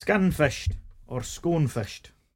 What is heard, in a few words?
"scunfished, sconfished", Cromarty; [sCUN-fusht or sCOHN-fusht]; sickened; Word; Health